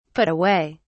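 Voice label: "put away", British English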